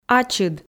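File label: "ачыд", Komi, female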